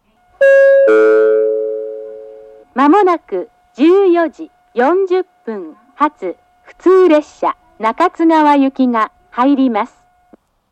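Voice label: platform 1 approaching advance notice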